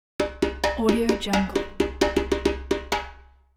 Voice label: african accent

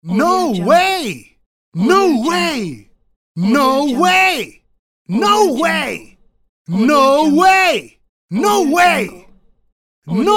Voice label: man says no way

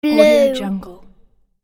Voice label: boy says "blue"

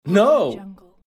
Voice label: male voice no

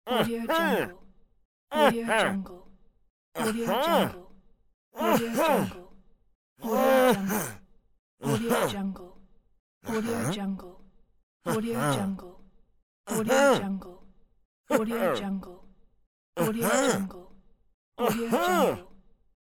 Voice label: old man confirmation pack

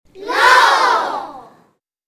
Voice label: childrens say no

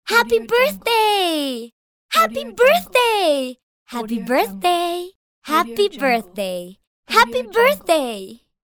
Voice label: teenage girl saying happy birthday

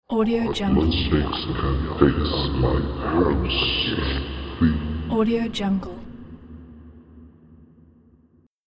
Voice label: demonic cult leader possessed horror voice

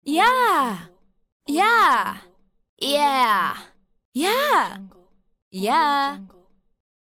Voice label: teenage girl say yah pack

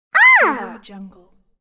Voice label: cartoon minion discovering; applehillstudios

